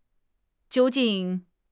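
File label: "jiu1 jing4", Chinese; HSK4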